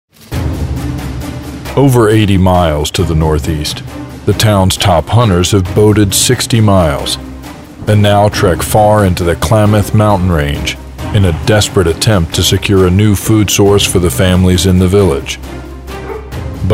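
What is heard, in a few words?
Doc Narration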